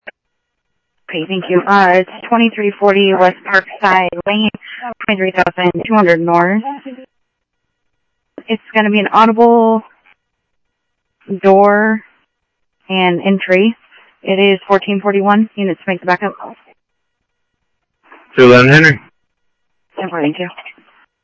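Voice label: Phoenix police department, scanner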